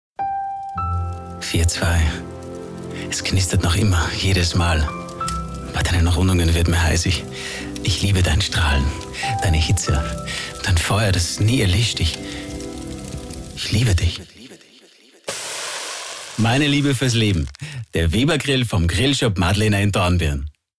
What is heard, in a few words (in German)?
Mann